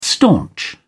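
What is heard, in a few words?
"staunch", British English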